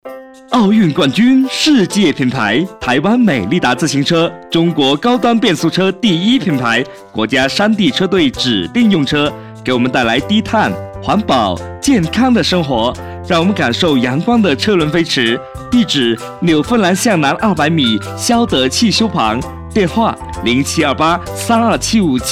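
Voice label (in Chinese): 广告自行车活力奋进版; 美利达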